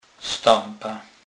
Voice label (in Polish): "stepa", kurpiow slownik